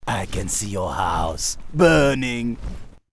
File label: Troll batrider Angry; warcraft3